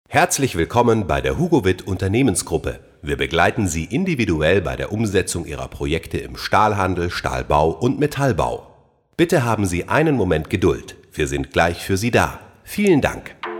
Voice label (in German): Ansage